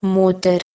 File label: "moder", Proto Germanic